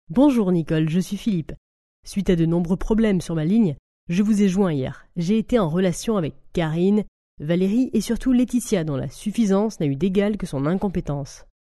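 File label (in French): French Female sample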